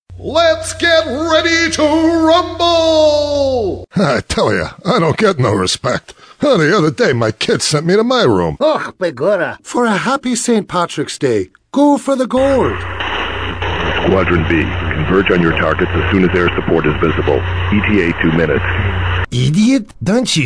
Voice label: Voice Talent Character Animation